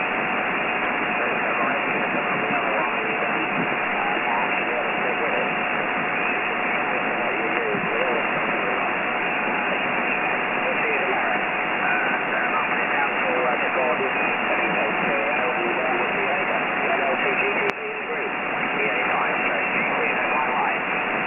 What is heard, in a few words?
40m SSB G day weak Bypass; noise cancelling, the carrier is locally generated man made noise